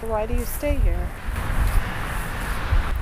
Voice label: evp stays